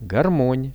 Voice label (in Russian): "garmon", Russian; гармонь; music; RUSSIAN VISUAL DICTIONARY